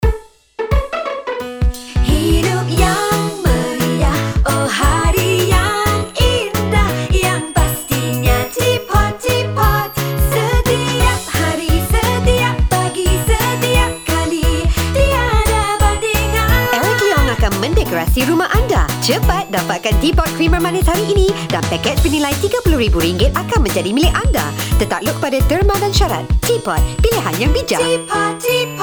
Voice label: Teapot jingle announcer